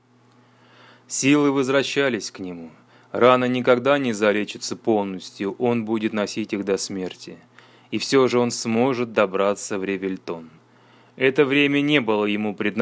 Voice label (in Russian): Спокойный дикторский